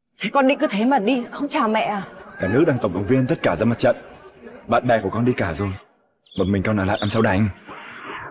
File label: vocals